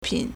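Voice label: "pin3", Mandarin Chinese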